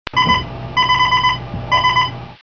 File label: comms